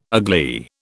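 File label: "agley", British English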